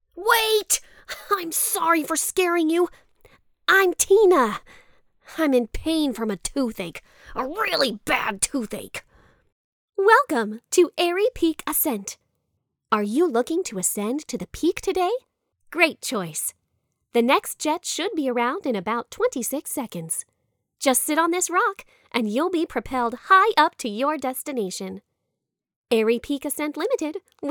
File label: Animation